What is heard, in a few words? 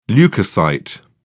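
"leucocyte", British English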